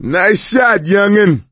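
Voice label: nice shot, voice, male; Left 4 Dead 2; coach; ellis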